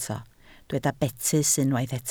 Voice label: "betys", Welsh